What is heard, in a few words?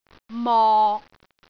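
"mok6", Cantonese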